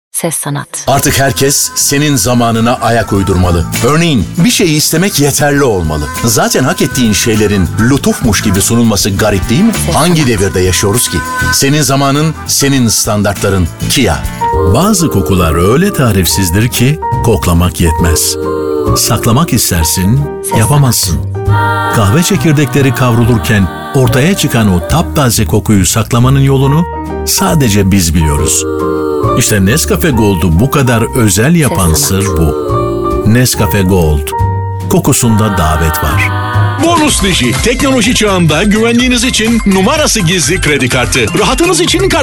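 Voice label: Turkish, seslendirme; Altan Erkekli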